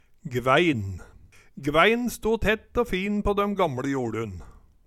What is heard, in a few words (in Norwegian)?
gvæin, Numedalsmål; Gvæin sto tett å fin på døm gamLe joLun